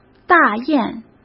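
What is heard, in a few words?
"大雁", Mandarin Chinese; chinese flashcards; hsk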